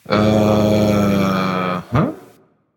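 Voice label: "seriously", male; smite; olorun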